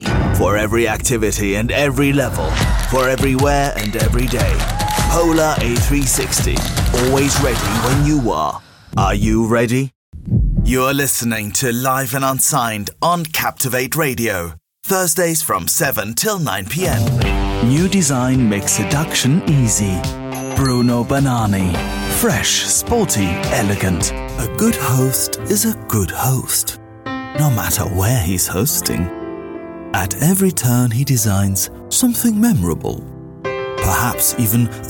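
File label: German accented English; GoLocalise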